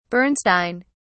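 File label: "bernstein", British English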